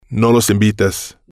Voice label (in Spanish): no los invites, Spanish